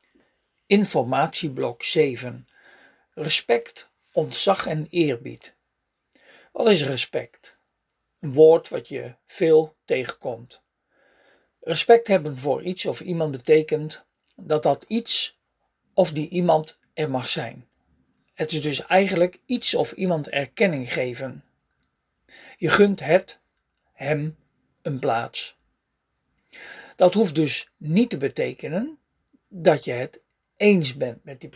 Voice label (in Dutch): spraak instructie